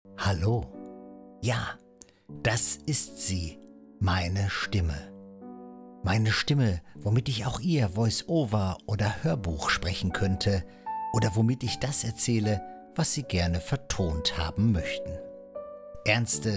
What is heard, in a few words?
German, Male